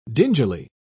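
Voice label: "dingily", British English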